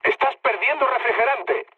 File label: damaged water, mood med, speech; War Thunder